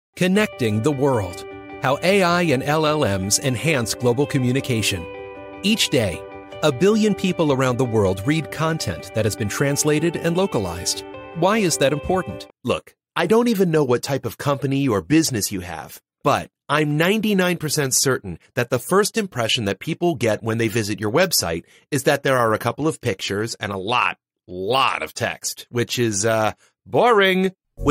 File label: explainer